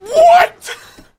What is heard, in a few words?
negative vocalization; scout